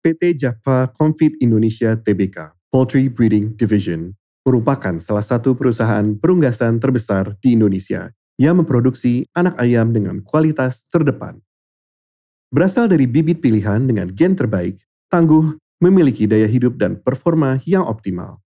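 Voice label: formal Indonesian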